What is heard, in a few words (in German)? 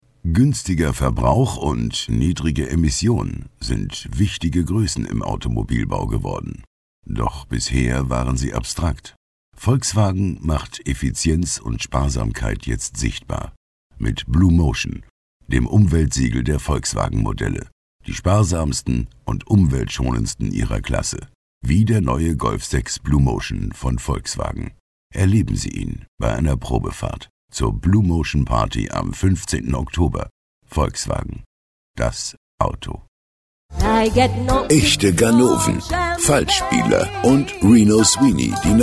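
Werbung seriös ruhig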